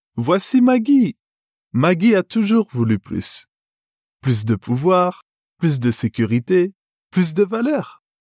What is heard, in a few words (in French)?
Narration, voix off masculine